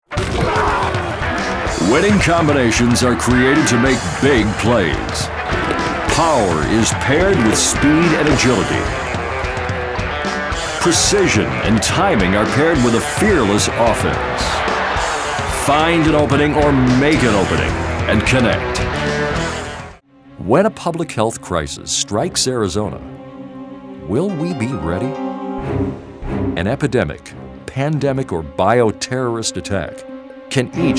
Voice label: Commercial, Male voices